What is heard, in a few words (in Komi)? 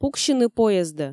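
пуксьыны поездӧ, Komi, female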